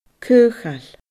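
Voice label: "caochail", Scottish Gaelic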